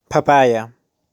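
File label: "Papaya", pronunciation; Frutas; fruits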